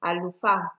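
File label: "alufa", Nigerian Pidgin, female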